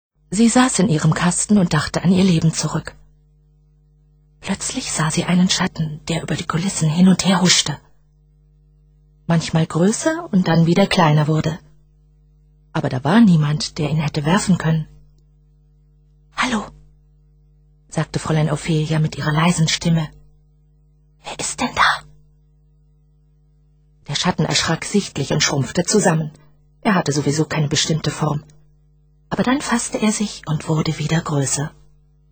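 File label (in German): literatur, Frauen Stimmen; Sprecherinnen, Weibliche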